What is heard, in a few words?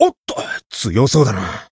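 Voice encount fear, Japanese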